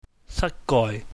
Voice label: "satgoi", Cantonese

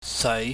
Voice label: "seui", pingyam

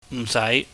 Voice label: "mhsaai", Cantonese

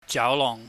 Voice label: "jaulohng", Cantonese

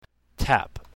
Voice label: "tap", English

